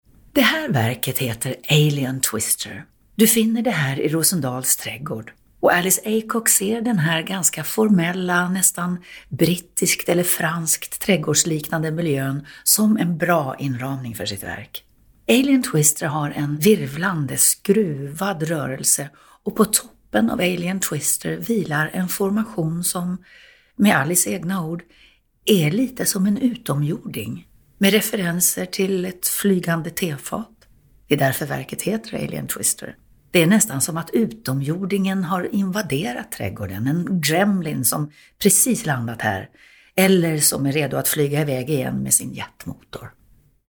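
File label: audioguide Swedish; Alien twister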